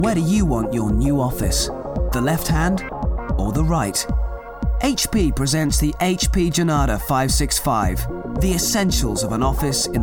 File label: Commercial